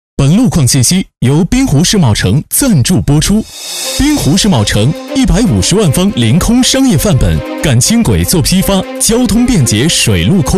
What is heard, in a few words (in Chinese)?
商业广告; 滨湖世贸城